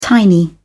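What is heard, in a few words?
"tiny", British English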